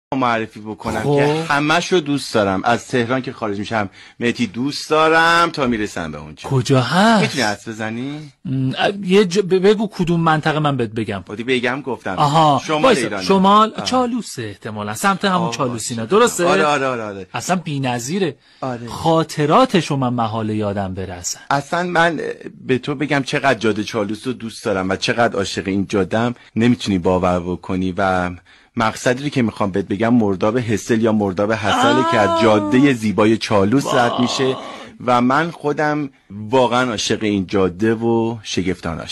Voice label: Radio Announcer

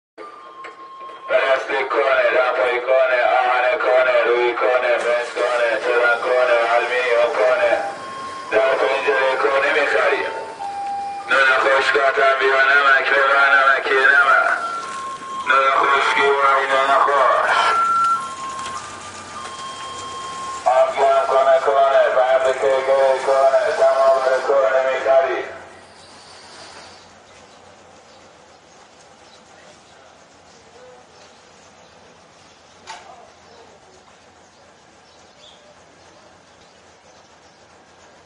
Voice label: salesman voice

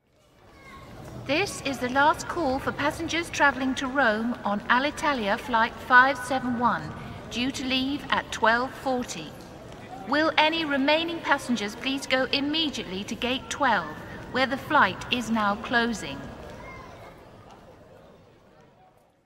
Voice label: Airport Announcement English

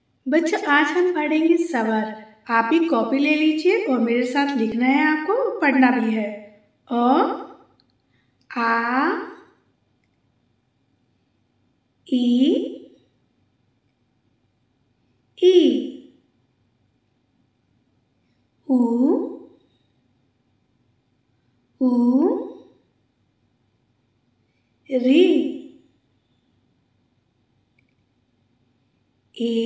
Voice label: Hindi; زبان هندی

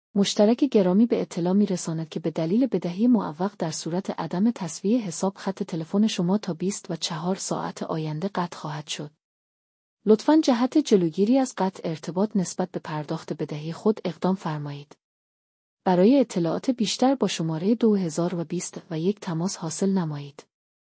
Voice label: IVR secretary; منشی تلفن گویا جهت بدهکاری

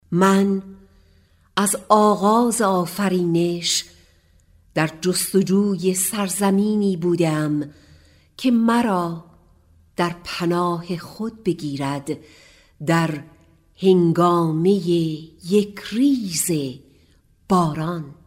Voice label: farsi sound

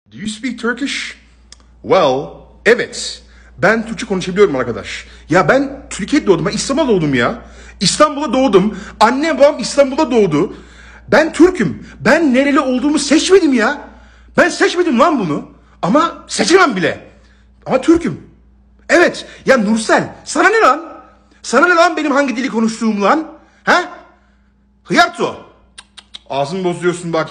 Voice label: Turkish language

